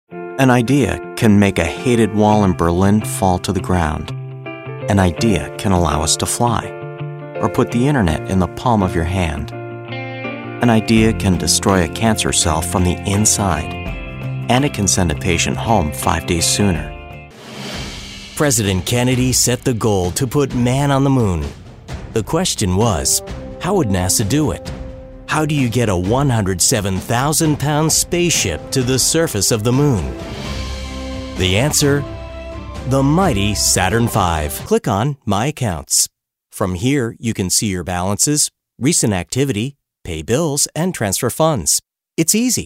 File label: Narration